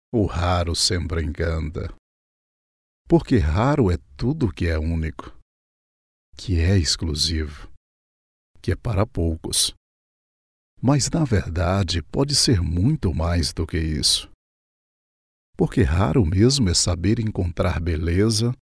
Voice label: portuguese brazilian movie trailers